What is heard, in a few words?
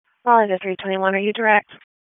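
Police Dispatch, p25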